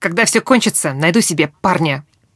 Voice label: idle, woman